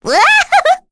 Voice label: Skill, kr; Requina; Halloween; kingsraid

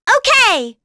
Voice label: Vox, en; kingsraid

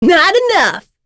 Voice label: Vox Victory, en; Morrah; kingsraid; voices heroes en

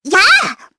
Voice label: Attack, jp; Hanus; kingsraid

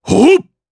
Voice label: Vox Attack jp; voices heroes jp; Bernheim; kingsraid

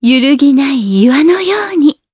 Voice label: Vox Skill, jp; Yuria; kingsraid

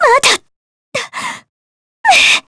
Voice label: Dead, jp; Jane; kingsraid

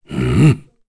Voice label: Vox, kr; Dakaris; kingsraid

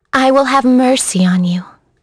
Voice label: Vox Skill, en; kingsraid; voices heroes en

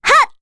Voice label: Vox Attack, kr; Rehartna; Kings Raid

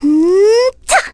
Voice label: Requina Vox Casting, voices heroes en; kingsraid-audio

